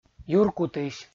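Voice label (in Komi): "юркутысь", Komi, male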